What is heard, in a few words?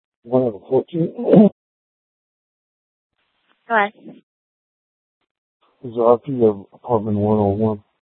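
police dispatch, scanner; Mesa PD; 1 Fiesta Dispatch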